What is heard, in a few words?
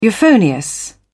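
"euphonious", British English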